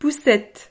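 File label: "poussette", French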